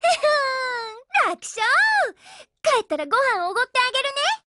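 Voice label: WIN, Japanese, Voice; GF Alarm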